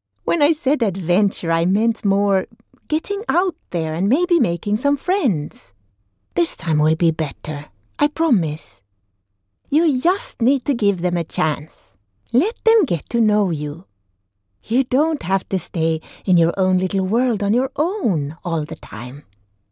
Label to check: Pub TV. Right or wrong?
Wrong